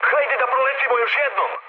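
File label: Serbian bomb failure mood med, speech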